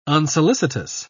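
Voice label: "unsolicitous", British English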